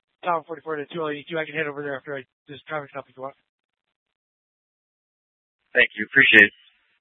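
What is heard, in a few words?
Scottsdale PD North Dispatch; Nth Dispatch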